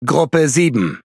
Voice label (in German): Speech Pilots Bomber ID, DE; World Of Warships